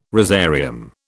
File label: "rosarium", British